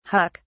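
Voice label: "huck", English, uk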